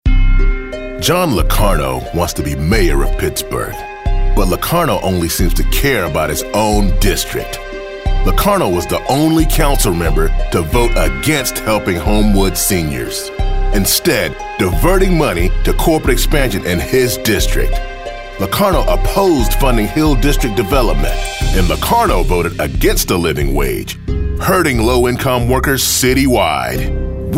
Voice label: Political Negative